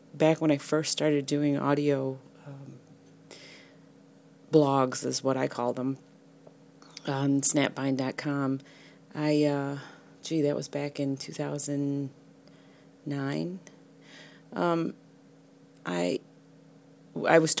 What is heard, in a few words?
no accent just a voice